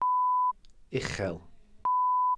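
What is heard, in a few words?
"uchel", Welsh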